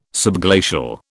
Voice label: "subglacial", British